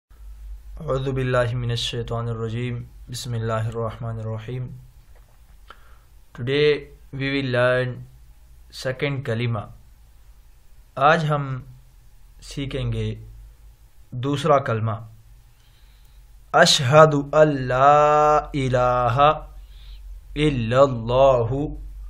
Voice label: Second kalima shahadat in arabic with urdu english translation